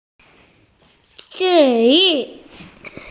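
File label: "zhi4yu4", Mandarin Chinese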